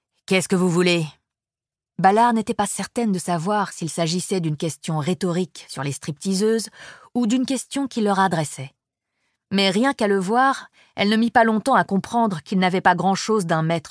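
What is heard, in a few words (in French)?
LIVRE AUDIO; Renee Ballard; Harry Bosch; A qui sait attendre de Michael Connelly; serie d'enquetes avec harry bosch; audiolib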